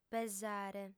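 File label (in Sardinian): "pesare", female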